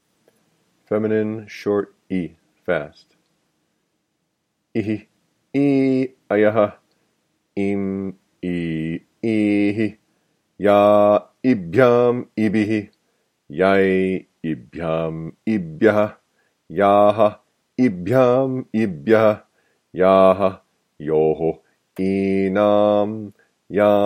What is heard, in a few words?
Noun Endings Fast